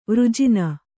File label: "ವರ್ಜನೆ", Kannada